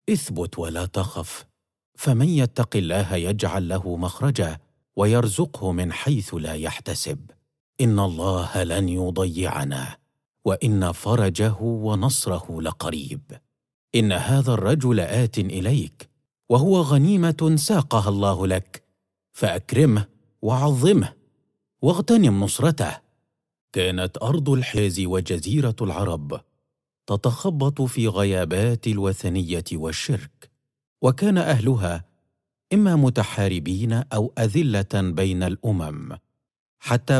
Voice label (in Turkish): Arapca Erkek Klasik Arapca, seslendirme; erkek sesler